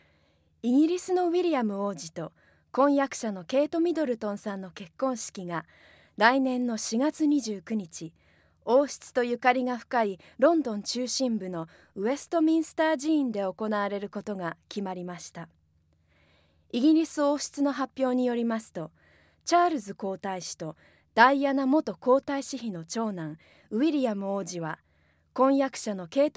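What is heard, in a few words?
Japanese F Corporate, female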